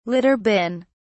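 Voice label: "litter bin", British English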